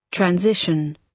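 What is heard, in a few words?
"transition", British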